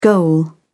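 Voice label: "goal", British English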